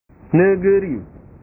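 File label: "negeri", Indonesian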